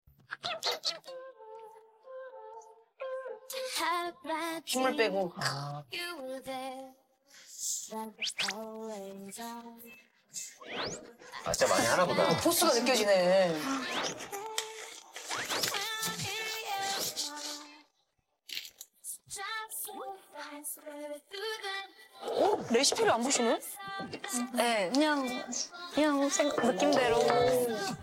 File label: vocals